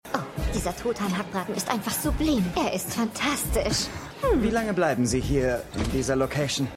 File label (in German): Restaurantgaestin Restaurantgast; Law & Order